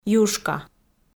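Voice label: "юшка", Komi, female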